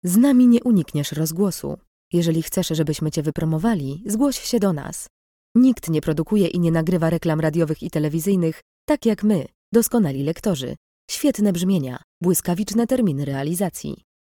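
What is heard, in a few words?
Polish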